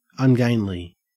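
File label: "ungainly", Australian English; pronunciations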